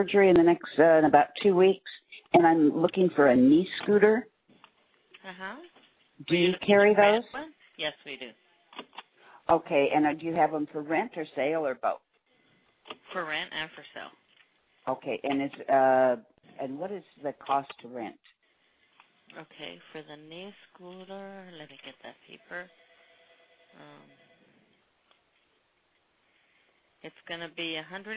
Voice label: US Medical